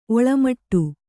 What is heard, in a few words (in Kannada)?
"ಒಳ್ಮಿಡಿ", Kannada